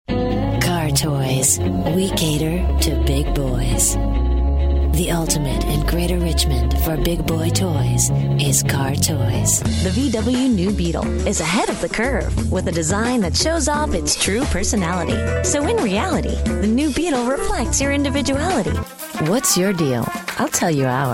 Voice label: alluring